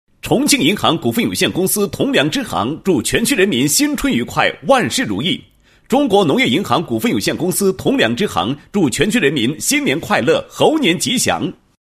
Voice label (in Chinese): 男 拜年; 铜梁春节企业拜年